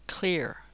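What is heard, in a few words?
american english "clear"; [kʰʟ̥i:ɻ]; amerikansk engelsk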